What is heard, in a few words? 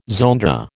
"zonda", American